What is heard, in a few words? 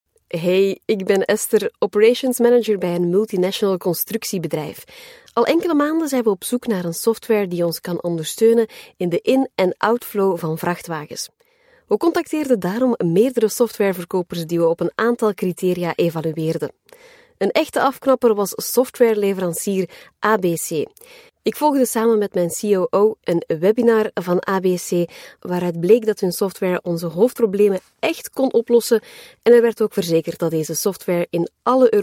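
Flemish, female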